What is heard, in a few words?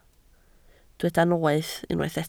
"noeth", Welsh